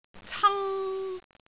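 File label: "cang1", Cantonese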